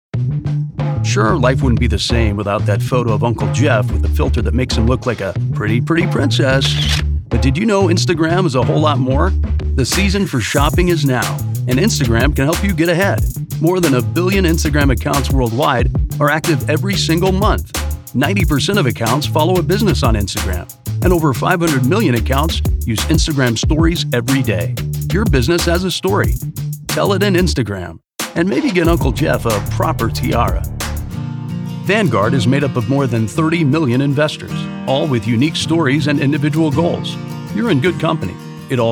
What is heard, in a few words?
Explainer